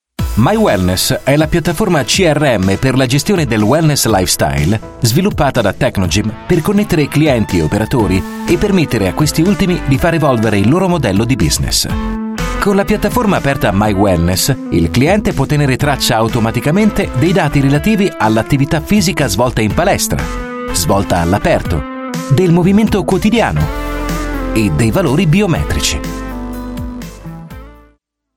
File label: Italian